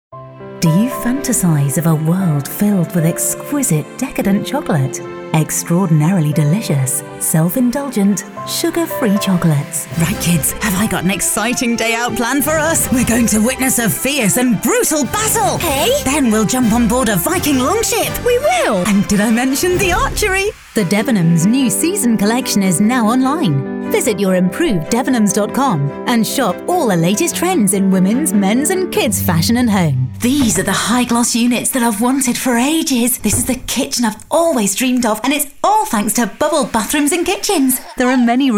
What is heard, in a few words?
Commercial Showreel